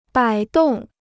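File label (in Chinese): "摆动" Chinese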